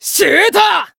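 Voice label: Voice Japanese; finish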